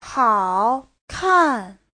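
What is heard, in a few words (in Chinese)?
"hao3 kan4" Chinese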